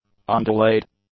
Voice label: "undelayed", English; adjectives